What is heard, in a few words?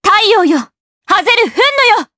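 Vox Skill Japanese; Laudia; kingsraid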